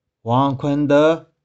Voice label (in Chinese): "wong1khueng1deu5", 玉環